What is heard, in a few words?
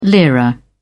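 "lira", British English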